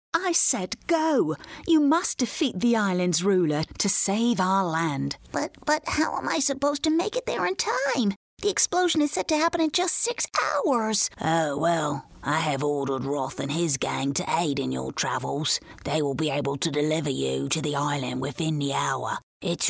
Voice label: Characters Female